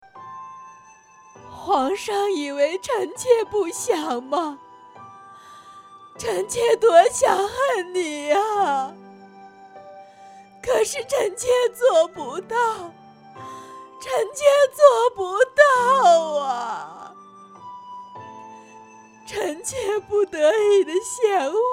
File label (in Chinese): 哭戏 台词